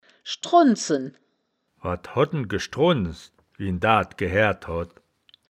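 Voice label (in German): "schdrund-zen", Wittlicher Wörterbuch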